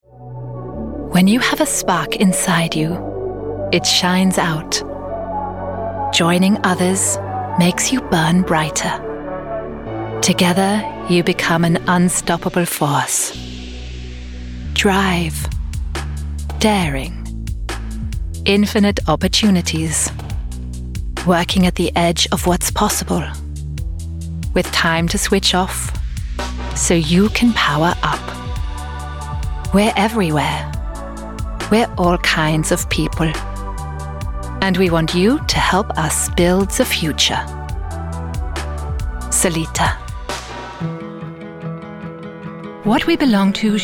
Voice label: Commercial Global accents

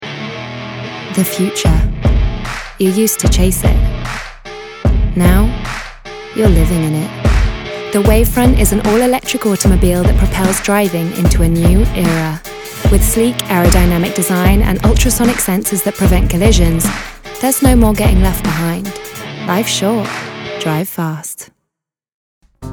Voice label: Commercial

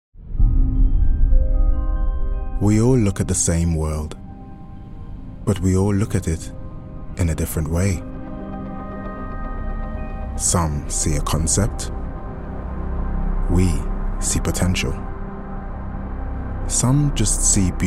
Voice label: Commercial

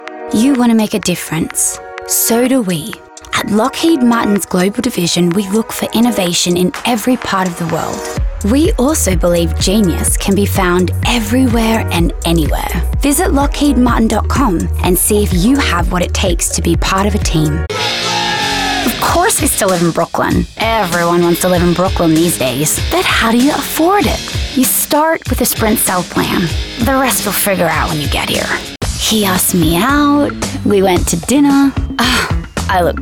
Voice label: Commercial

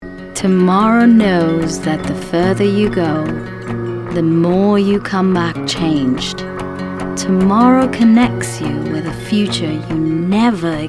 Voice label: Mid-atlantic

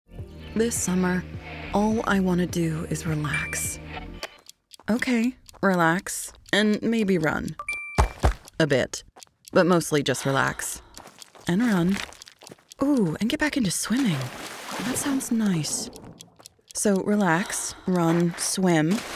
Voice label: COMMERCIAL

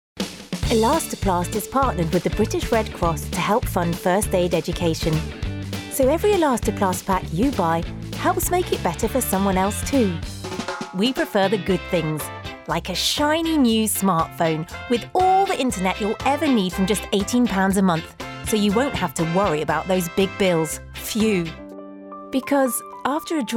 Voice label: Commercial

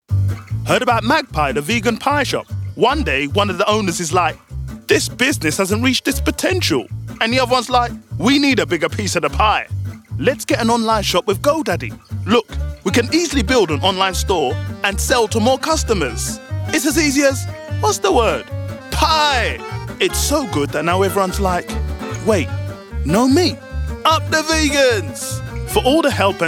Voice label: Commercial

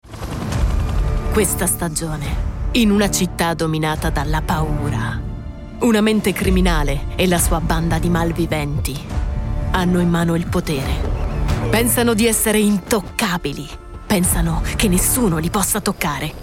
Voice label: Action Trailer italian; LEGO

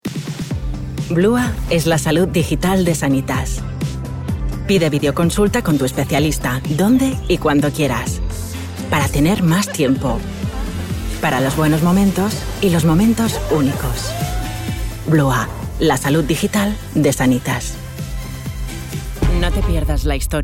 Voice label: Spanish

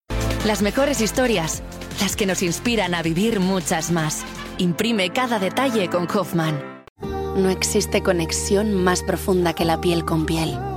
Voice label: Spanish Reel

